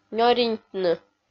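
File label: "нёриньтны", Komi, female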